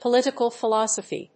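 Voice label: "political philosophy", English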